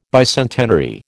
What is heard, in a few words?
"bicentenary", American